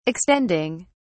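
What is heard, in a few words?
"extending", British English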